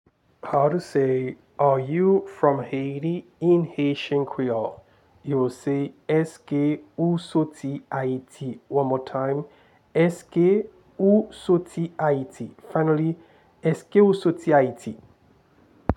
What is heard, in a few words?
Are you from Haiti in Haitian Creole Eske ou soti Ayiti pronunciation